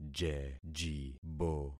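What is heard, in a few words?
"jejibo", concatenated syllables; Affixing experiment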